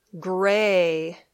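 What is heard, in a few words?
"gray", English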